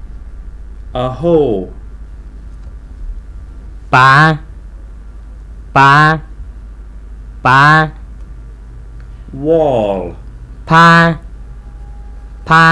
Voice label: White Miao